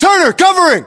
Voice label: response covering, battlechatter us; localized english

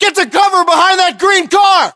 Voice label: order cover car green, battlechatter us

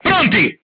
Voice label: voice message load, crew tanks italian

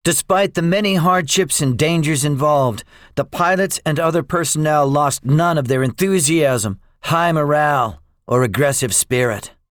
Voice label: debriefing, english; War Thunder

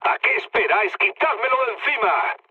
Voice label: aircraft damaged, mood low, speech; War Thunder

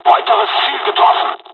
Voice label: japanese; first wave; War Thunder

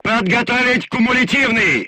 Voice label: voice message load HEAT, crew tanks russian

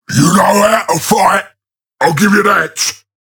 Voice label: Ork Quick Commands Thank You Ship; Warhammer 40000

